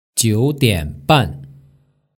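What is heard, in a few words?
"jiudianban", Mandarin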